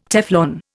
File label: "Teflon", Indian